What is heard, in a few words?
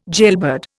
"jailbird", Indian